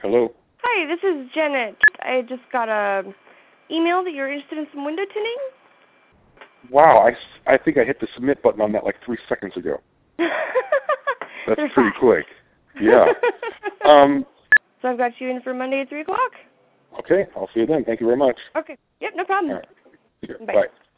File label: windowtint live call